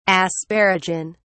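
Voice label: "asparagin", English, British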